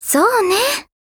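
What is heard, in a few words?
agree, Japanese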